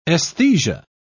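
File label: "esthesia", British English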